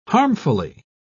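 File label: "harmfully", British English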